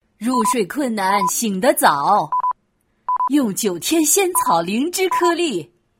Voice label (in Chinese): 动画 老人 女老年